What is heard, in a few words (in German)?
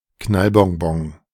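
"Knallbonbon", German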